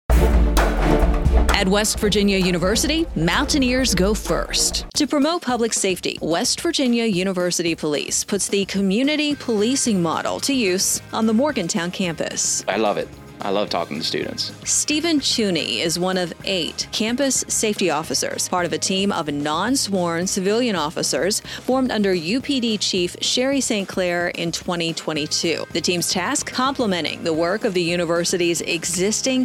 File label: radio spot; campus safety